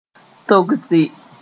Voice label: "togse", Mooré

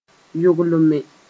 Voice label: "yoglme", moore

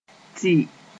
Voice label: "tɩ", Moore

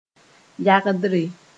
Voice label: "yagdre", Moore

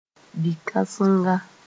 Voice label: "bi-kãsenga", Mooré

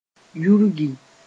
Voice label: "yurgi", moore

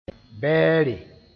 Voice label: "bɛɛre", moore; enemy

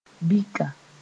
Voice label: "bika", Mooré

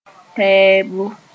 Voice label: "tɛɛbo", Moore

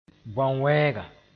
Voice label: "bõan-wɛɛga", moore

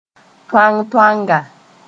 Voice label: "toantoanga", moore

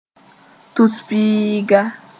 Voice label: "tus-piiga", moore

